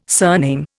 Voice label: "surname", Indian